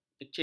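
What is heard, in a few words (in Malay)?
"kecil", Malay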